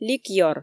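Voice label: "ликёр", Komi, female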